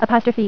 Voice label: "Apostrophe", female voice; TalkingKeys

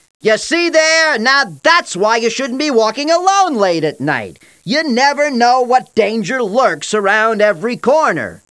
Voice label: WALK ALONE, CARTOON VOICES; Sound Effects for Multimedia - Volume 2

